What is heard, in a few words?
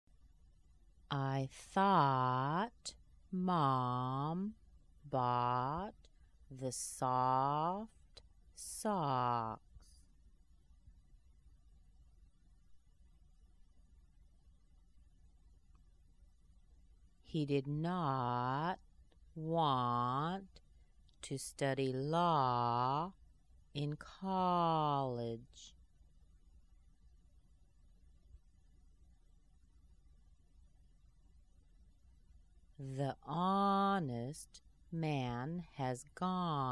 ah sentences slow, American accent